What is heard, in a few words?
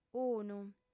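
"unu", Sardinian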